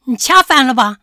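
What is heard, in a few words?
nanchang, Chinese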